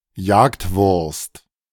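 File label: "Jagdwurst", German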